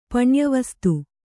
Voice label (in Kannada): "ಪಣ್ಯವಸ್ತು", Kannada